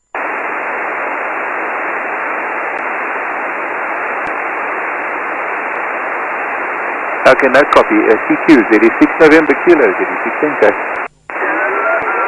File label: CALLERS SSB